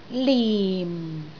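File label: "lim4", Cantonese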